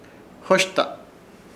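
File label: "hoshta", Chamacoco word, diosa del sexto; Ishir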